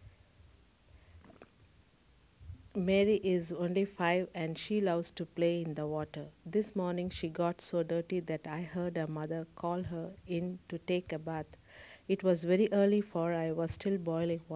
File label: Tamil India